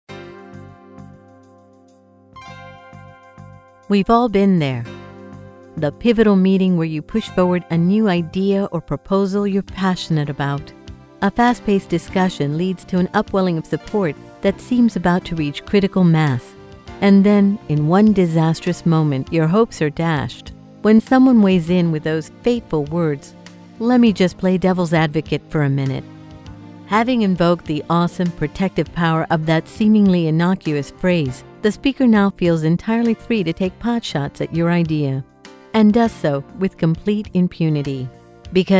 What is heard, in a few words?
English Corporate